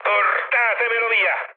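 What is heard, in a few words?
Italian aircraft damaged mood med, speech; War Thunder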